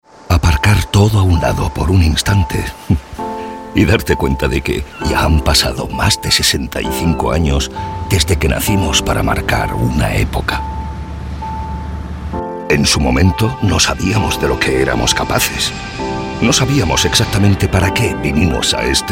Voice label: male spanish car comercial; ideasonora